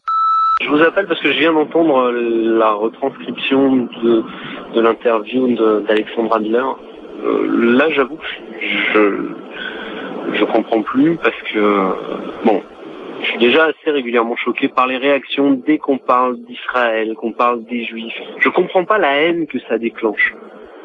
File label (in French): répondeur; Derapage Alexandre Adler